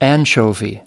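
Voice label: "anchovy", US English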